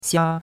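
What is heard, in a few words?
"xia1", Mandarin Chinese; Cours de Chinois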